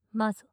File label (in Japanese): "マゾ" 近 右, ASMR